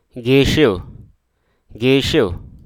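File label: Hruso Aka; dressing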